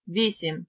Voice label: "8", Ukrainian